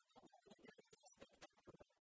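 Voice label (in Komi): ми огӧ удитӧй рытъя спектак вылӧ, Komi, female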